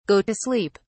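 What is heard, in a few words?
"go to sleep", British English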